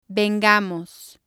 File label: "vengamos", Spanish